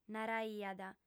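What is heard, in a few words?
"naraiat", Sardinian, female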